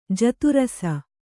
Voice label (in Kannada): "ಜಾತರಾಸಿ", Kannada